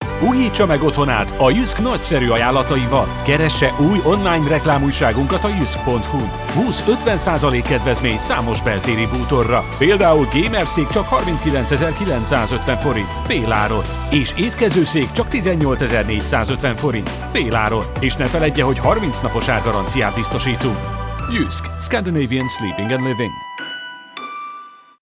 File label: Hungarian commercial